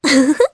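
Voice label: Vox Happy Japanese; Laias; kings raid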